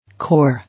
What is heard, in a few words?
"Core", English